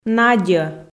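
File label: "надьӧ", Komi, female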